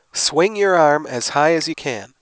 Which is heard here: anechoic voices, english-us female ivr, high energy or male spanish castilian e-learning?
anechoic voices